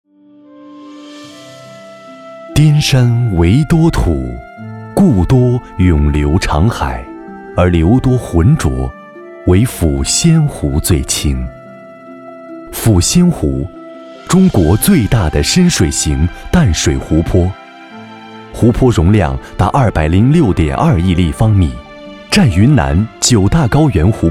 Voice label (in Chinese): 温婉专题; 抚仙湖